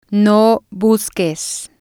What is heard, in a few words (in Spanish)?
"no busques", spanish